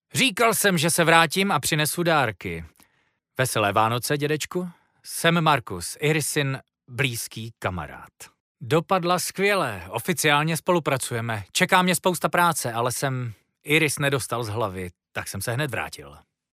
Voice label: locutor checo czech voiceover; Locutores checos de doblaje de series